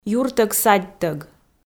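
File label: "юртӧг-садьтӧг", Komi, female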